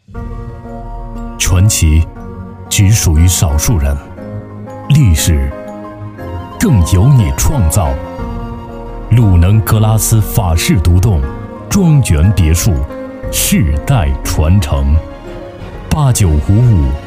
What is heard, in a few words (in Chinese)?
男 专题 地产; 鲁能格拉斯小镇